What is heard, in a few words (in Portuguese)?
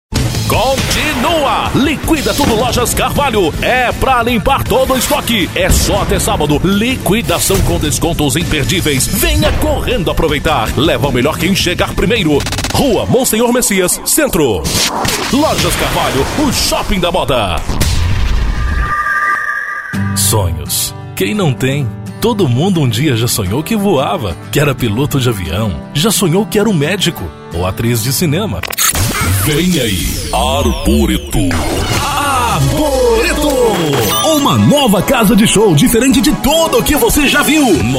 locutor